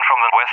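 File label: "from the W", English; Allied Flight; DCS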